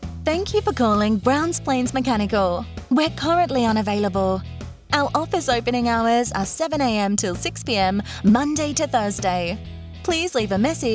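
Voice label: English Australian Female IVR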